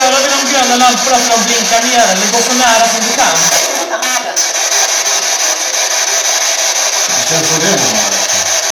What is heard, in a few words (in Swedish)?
EVP Tyska